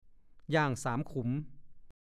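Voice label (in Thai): "ย่างสามขุม" ภาษาโคราช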